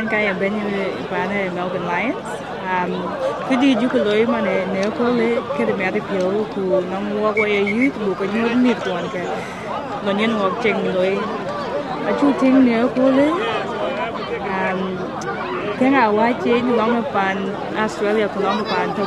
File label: dinka, podcast; SBS Dinka